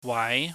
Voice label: "wai", Chinese